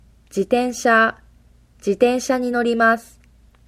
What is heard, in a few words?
bicycle, japanese